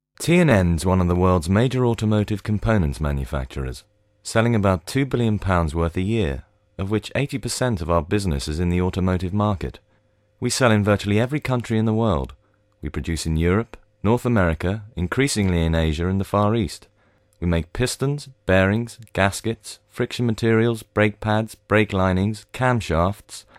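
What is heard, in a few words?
UK English Corporate; GoLocalise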